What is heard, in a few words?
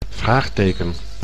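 "vraagteken", Dutch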